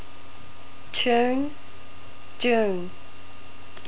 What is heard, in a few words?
tune, NZ English